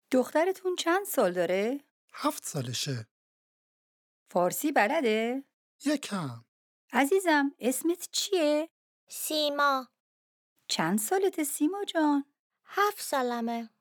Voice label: Dialogue lesson Farsi